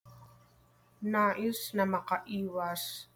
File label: TAGALOG; want to be spared - nais na makaiwas